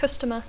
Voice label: "customer", English